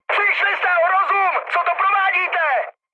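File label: player killed ally, Czech, mood high; speech; War Thunder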